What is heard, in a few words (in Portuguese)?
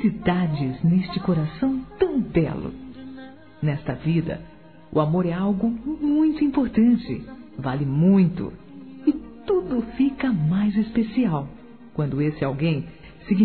ANIV. ROMANTICO F